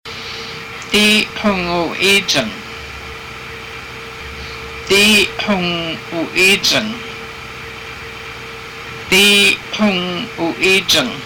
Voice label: my father is said by a male, haida; díi xung uu íijang

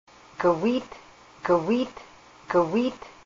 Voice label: beads, haida language; gawiit

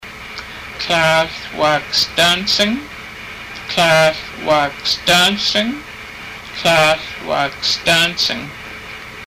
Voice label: fourteen, haida; Tláahl wáak stánsang

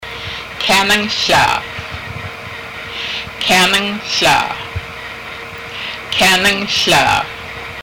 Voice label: ask anyone, haida language; Kyáanang hlaa; MASSET B.C. -- ALASKA

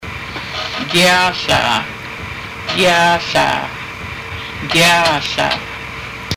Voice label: stand up, haida; Gyáa hláa

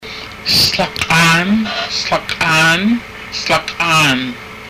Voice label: palm, haida; Stlak'áan; Palm of hand

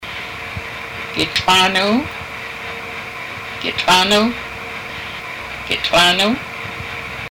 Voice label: where, haida language; Gitl'aan úu; MASSET B.C. -- ALASKA

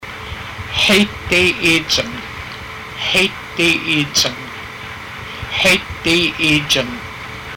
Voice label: i am feeling low, haida; Xíit díi íijang

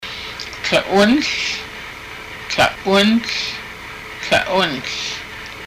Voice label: six, haida; Tla'únhl

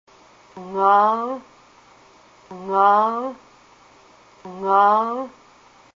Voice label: broad kelp, haida language

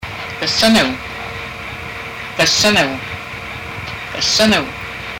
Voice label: how, haida; Gasán uu; MASSET B.C. -- ALASKA

